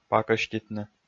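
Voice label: "пакӧститны", Komi, male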